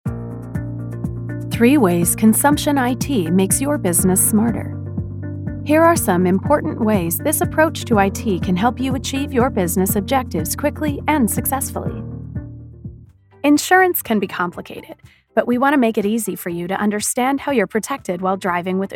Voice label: elearning & Narration

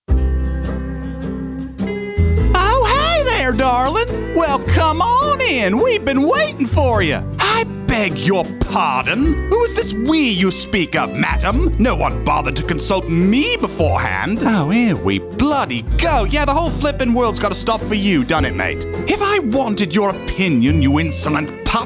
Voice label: Accents Teaser

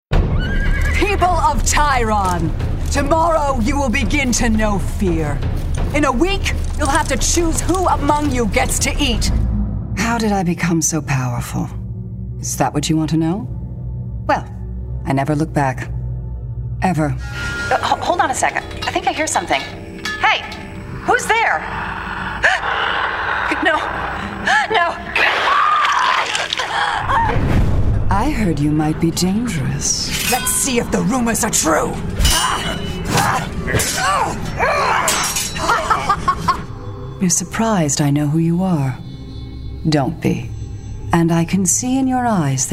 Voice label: Game Voice

